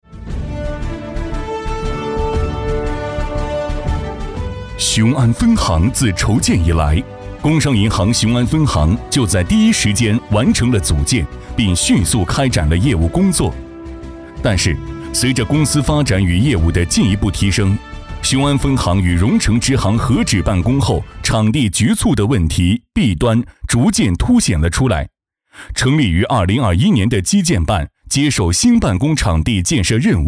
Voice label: 男 工程汇报 基建

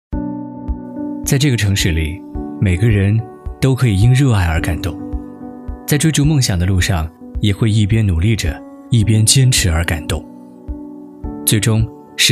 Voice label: 男 旁白独白 热爱感动

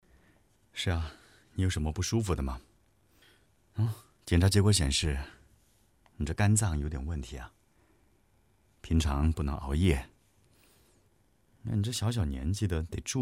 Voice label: 男 角色 医生

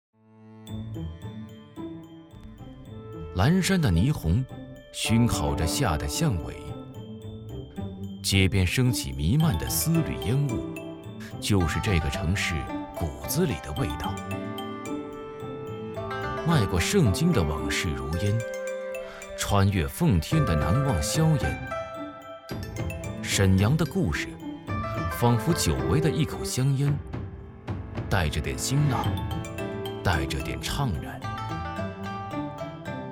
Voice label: 男 纪录片; 人生一串

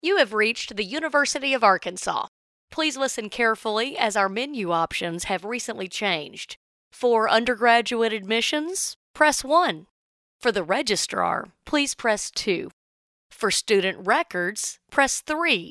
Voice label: 信箱留言

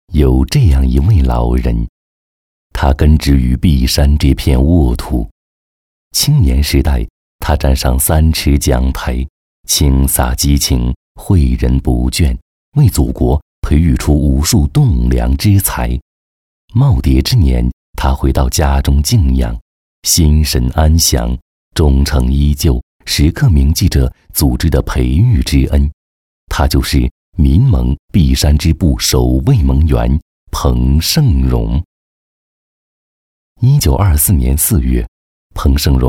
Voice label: 男 纪录片 娓娓道来; 不朽

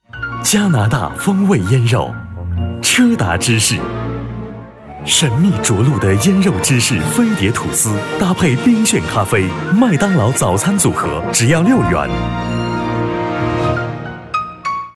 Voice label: 男 国语男声配音

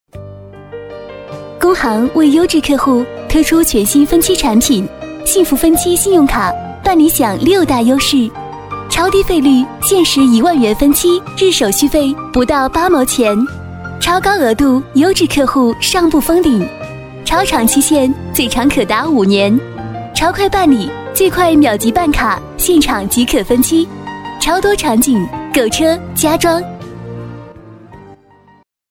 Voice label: 女 彩铃; 工行幸福分期

